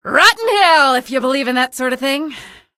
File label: kill, female; episodic; episode 1; Source engine